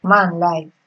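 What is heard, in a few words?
"man life", Nigerian Pidgin, female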